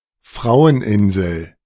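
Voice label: "Fraueninsel", German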